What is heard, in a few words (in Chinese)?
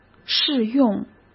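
"试用", Mandarin Chinese; chinese-flashcards; hsk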